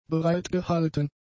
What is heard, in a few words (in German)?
"bereitgehalten", German; adjectives